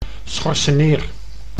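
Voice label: "schorseneer", Dutch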